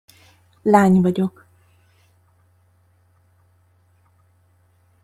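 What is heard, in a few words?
lany vagyok, Hungarian pronunciation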